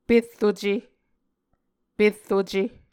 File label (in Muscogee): "perruce", Muscogee